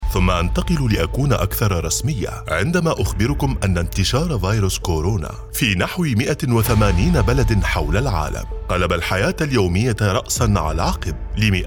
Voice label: arabic narration

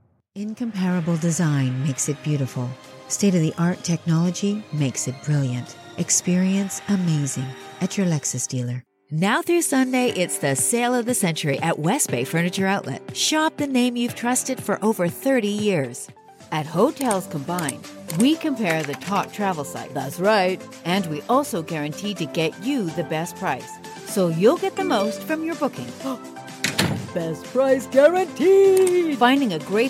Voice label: English canadian commercial

